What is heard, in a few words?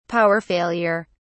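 "power failure", English, British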